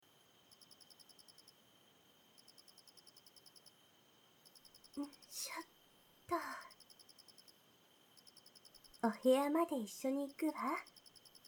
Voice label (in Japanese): 温かい添い寝, ASMR; 癒しを届けに行くお店 憩恋